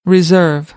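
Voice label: "reserve", American English; AmericanBritish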